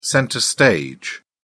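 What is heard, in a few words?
"centre stage", British English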